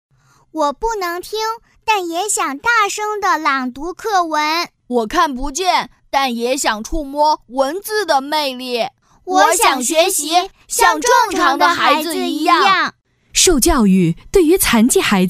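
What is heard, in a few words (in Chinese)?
女-公益广告【女童+男童+大气女声】; 残疾孩子受教育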